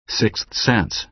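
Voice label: "sixth sense", American English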